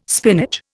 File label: "spinach", Indian